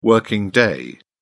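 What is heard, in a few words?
"working day", British English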